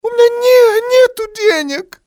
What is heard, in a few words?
any money, male; XBOX; civ